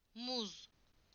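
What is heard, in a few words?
"banana", Turkish; PictureDictionary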